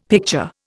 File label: "picture", Indian; Dictionary